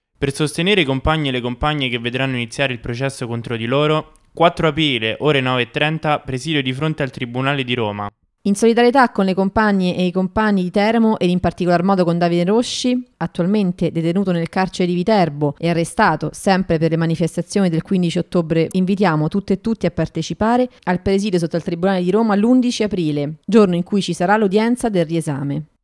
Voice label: voce appuntamenti; processi 15 ottobre

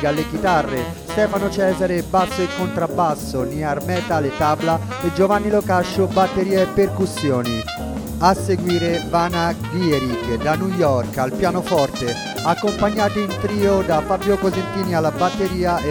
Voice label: spot jazz; jazz in forte

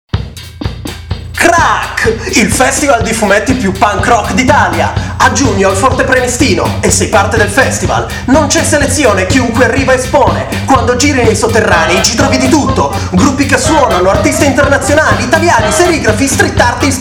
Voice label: spot; Crack

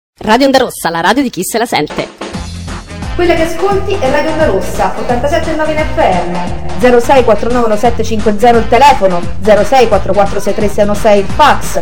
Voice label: spot

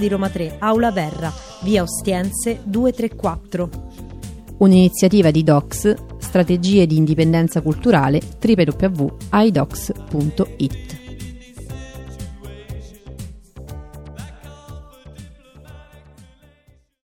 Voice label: spot seminario repressione; docks roma tre

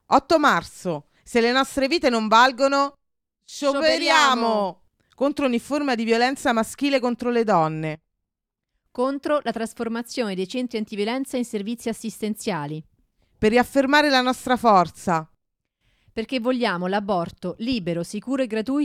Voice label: spot voci generale; 8 marzo

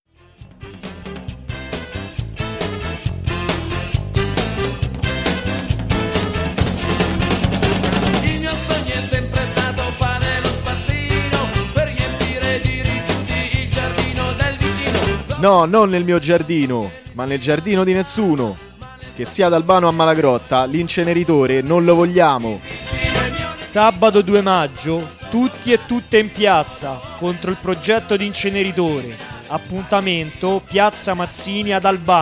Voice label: spot; noincalbano

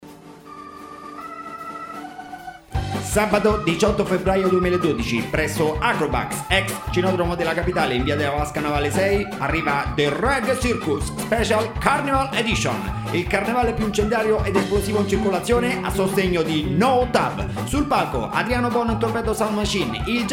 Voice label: spot reggae; NO TAV; Acrobax

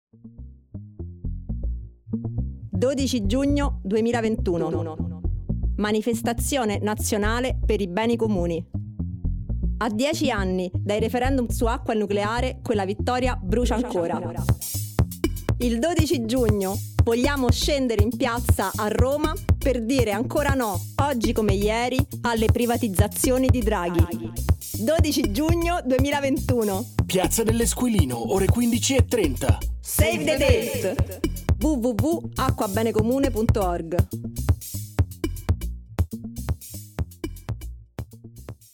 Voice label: spot; acqua bene comune

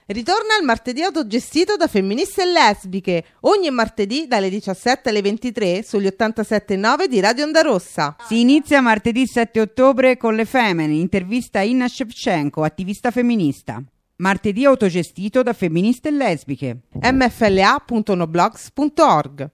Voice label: voce pulito

